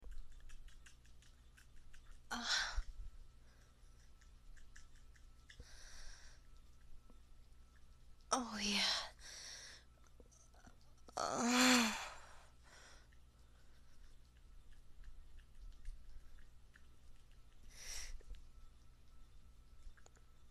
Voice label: F4A lady sounds; Good Lord, I Feel Like I'm Dying; No Fucks Given challenge; wet noises; double O's; overstimulation; squeaks; hysterical laughter; VERY LOUD